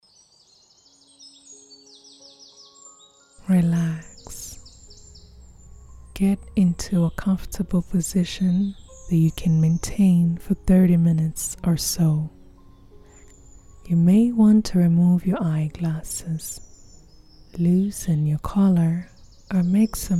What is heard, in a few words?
waves of relaxation, English; podcasts